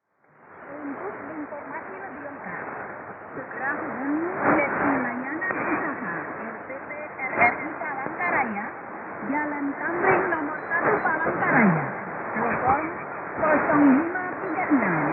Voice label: 3325kHz; RRI-Palangkaraya

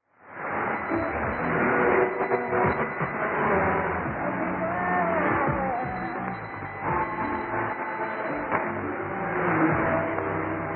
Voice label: 3345kHz; Channel Africa

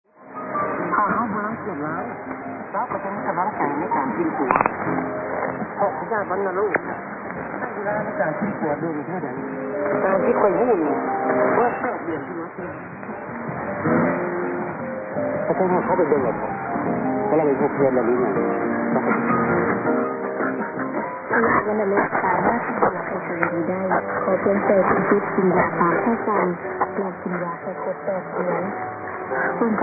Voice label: lao national radio, 6130k

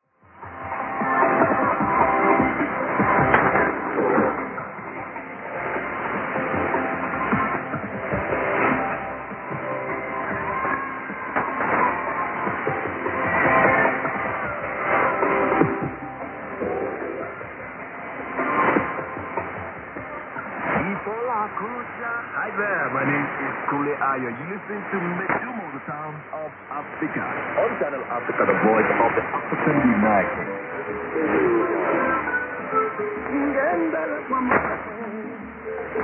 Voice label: 3345kHz; Channel Africa

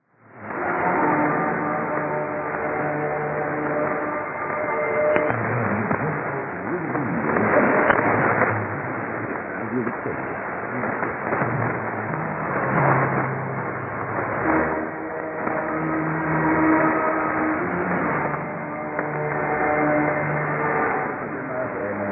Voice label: 6019.3kHz; Radio Victoria; ペルー

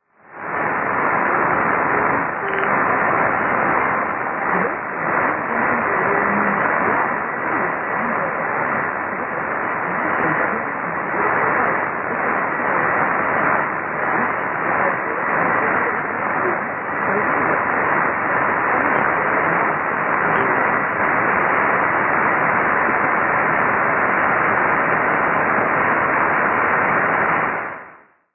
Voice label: 6220kHz; RTE Radio 1 via Meyerton

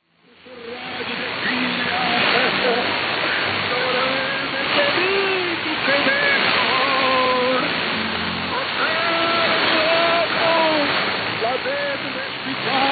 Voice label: 6019.3kHz; Radio Victoria; ペルー

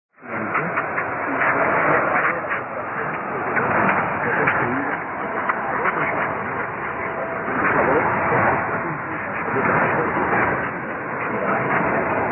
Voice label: 6019.3kHz; Radio Victoria

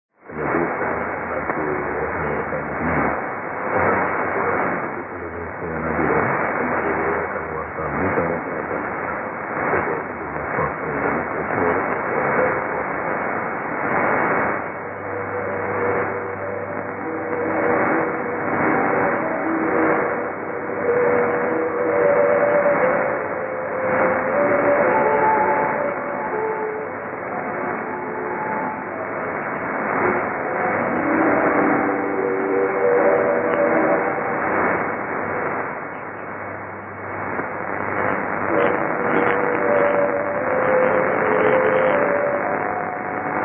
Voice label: 6125.5kHz; RRI-Nabire

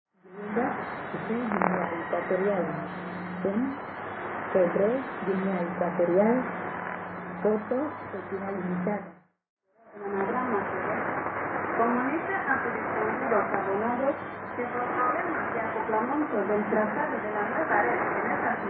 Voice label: 6250kHz; Radio Malabo

